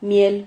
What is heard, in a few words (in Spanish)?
"miel", Silabas